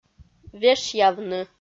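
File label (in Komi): "вешъявны", Komi, female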